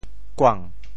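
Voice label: "kuang2", Teochew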